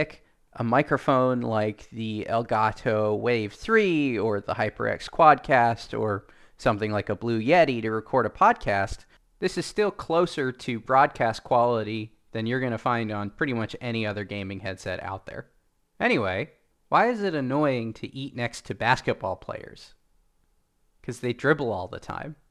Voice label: Corsair Virtuoso Wireless SE mic sample